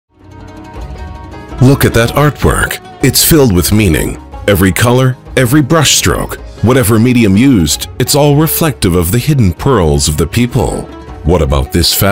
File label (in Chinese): 外籍英男 感染力 接待服务广告; 卡塔尔